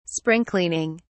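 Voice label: "spring cleaning", English, British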